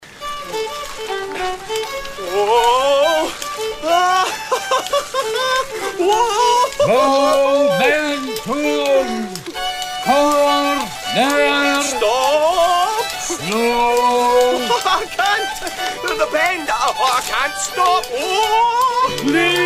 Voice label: Monologue; Backroom